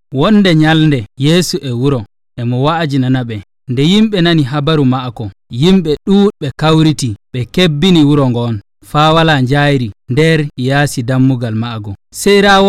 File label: Fulfulde Nigerian; Jesus Heals the Man Lowered Through the Roof